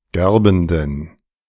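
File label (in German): "gerbenden", German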